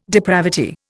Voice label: "depravity", Indian